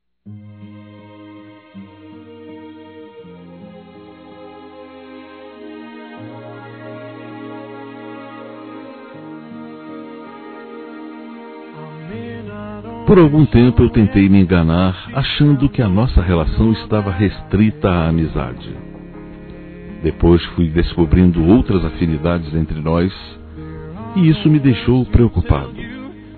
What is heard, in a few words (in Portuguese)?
Conquista Romantica Suave Masculino; Telemensagens; Conquistas Cantadas paqueras; Telemensagens 2017